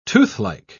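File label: "toothlike", British English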